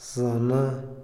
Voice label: "mes", Otomí, hombre; INALI

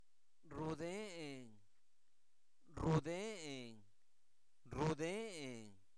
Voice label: doy, Zapoteco, santo domingo albarradas; INALI; verbos

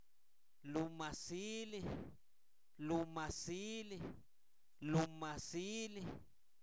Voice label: en la mañana, Zapoteco, santo domingo albarradas

